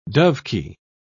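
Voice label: "dovekie", British English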